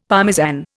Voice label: "Parmesan", Indian; Dictionary